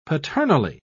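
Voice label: "paternally", British English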